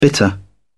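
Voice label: "bitter", British English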